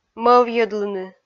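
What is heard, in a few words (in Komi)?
"мӧвйӧдлыны", Komi, female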